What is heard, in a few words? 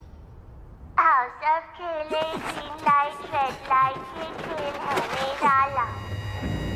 hindi green light red light